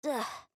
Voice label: Emote Negative; Luna